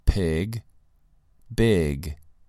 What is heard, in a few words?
"pig" "big"